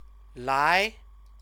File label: "lai", Chinese